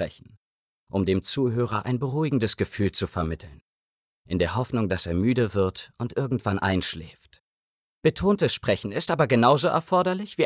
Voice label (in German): erzaehlung vielerlei arten